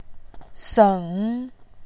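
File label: "seng", Mandarin Chinese, third tone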